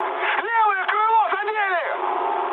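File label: Russian left wing mood high, speech; War Thunder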